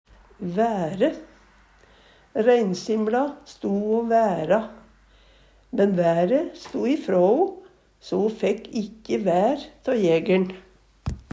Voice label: vaere, Numedalsmål; ReinssimLa sto o væra, men være' sto i frå'o, so ho fækk ikkje vær tå jæger'n

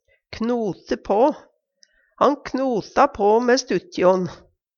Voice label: knote på, Numedalsmål; streve på; Uttrykk

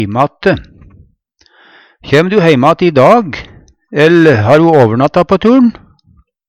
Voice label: heimate, Numedalsmål; Kjem du heimate i dag, ell ha du overnatta på tur'n.; Adverb

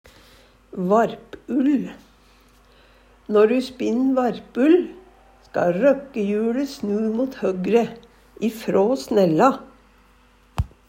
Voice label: varpull, Numedalsmål; Varpull (sumarull) vart klypt om hausten

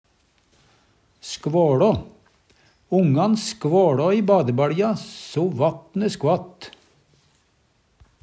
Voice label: "skva La", Numedalsmål; Verb

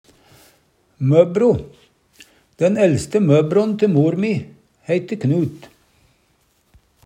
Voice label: mobbro, Numedalsmål

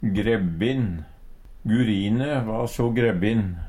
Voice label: "grebbin", Numedalsmål; Gurine va so grebbin.

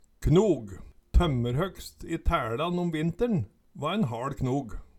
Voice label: "knog", Numedalsmål

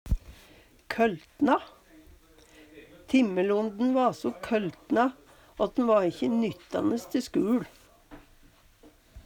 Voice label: køltna, Numedalsmål; Adjektiv

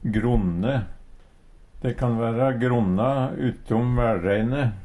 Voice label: gronne, Numedalsmål; De kan væra gronnu utom mælReine.

